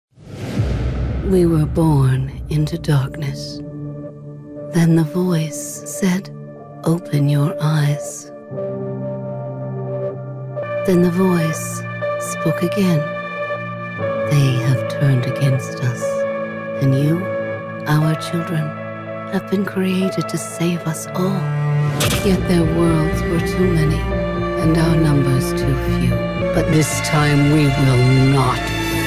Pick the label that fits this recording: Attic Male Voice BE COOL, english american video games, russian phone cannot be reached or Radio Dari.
english american video games